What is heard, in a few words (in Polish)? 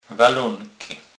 "walonky", Kurpie